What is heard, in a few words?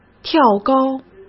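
"跳高", Mandarin Chinese; chinese flashcards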